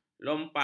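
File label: "lompang", Malay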